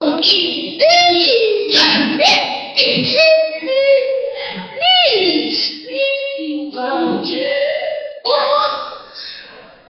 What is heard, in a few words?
doctors yelling and panic; medical